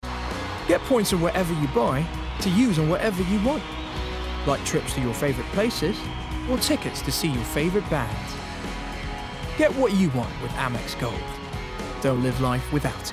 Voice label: Commercials Montage; Actor